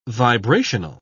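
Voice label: "vibrational", British English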